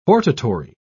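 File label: "hortatory", British English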